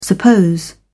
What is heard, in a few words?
"suppose", en uk